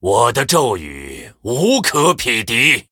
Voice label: Male Human Threaten; zh